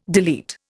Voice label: "delete", Indian

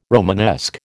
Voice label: "Romanesque", American

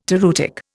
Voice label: "toreutic", Indian

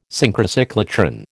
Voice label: "Synchrocyclotron", American

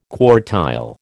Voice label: "quartile", American

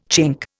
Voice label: "Chink", Indian

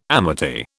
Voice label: "amity", British

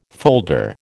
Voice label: "folder", American

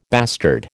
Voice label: "bastard", American

